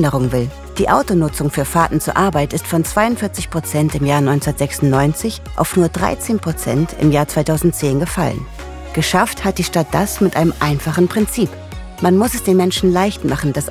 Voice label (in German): doku ausschnitt kopenhagen